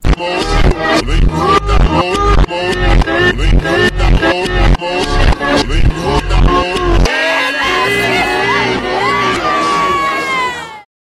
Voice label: six seven reversed

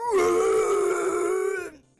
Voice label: deep voice

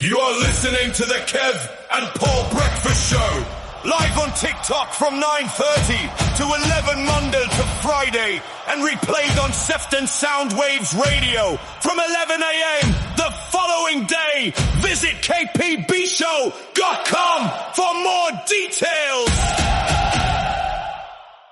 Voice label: show advert

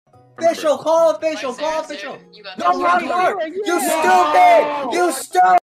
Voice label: argument

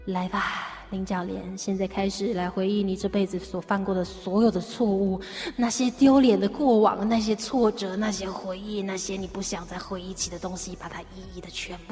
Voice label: vtuber devil whisper

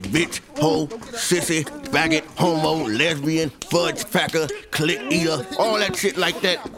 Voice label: public service announcement

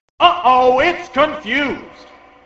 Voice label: uh oh confused

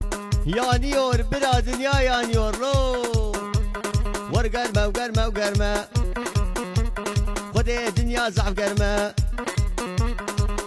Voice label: kurdish

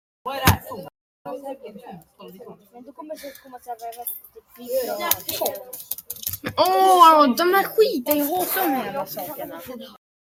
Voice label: rage

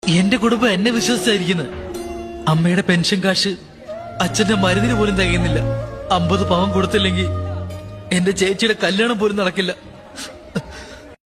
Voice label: poor malayalam

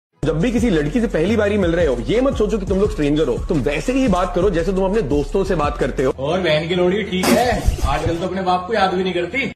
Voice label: ghost, hindi

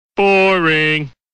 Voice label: boring; smg4

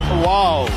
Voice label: telugu

